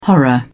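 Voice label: "horror", British English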